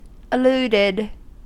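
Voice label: "alluded", US English; pronunciations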